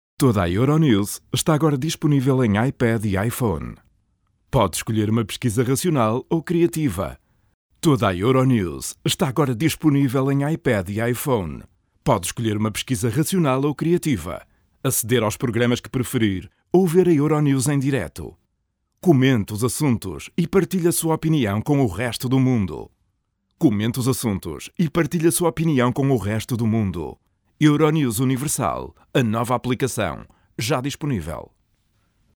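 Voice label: Portuguese, male, narration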